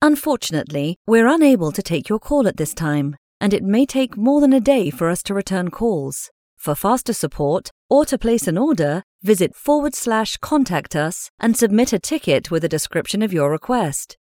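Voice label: British, telephony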